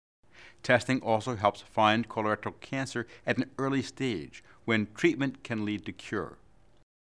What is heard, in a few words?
English; Colorectal Cancer